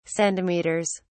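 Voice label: "centimeters", British English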